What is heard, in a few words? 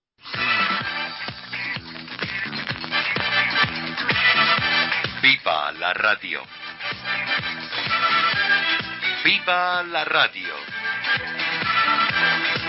Emisora Bogota 6160 kHz; Nueva Granada